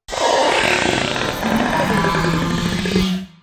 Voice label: happy; fertig classic games; mario